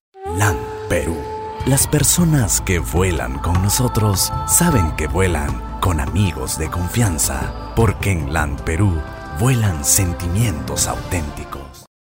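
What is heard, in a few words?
Spanish male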